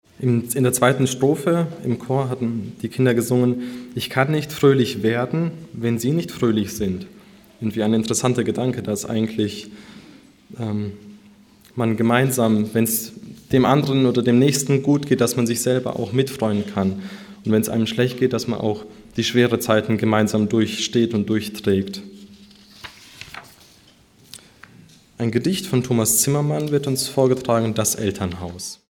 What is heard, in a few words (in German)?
Ansage